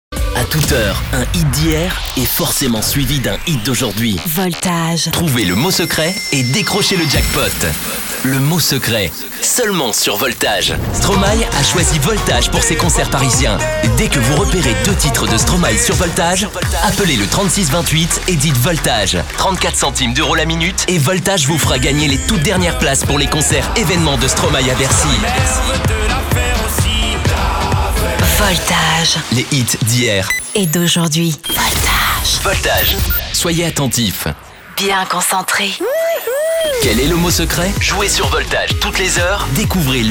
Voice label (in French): voix antenne radio, habillage jingle radio; voltage vibration wit fm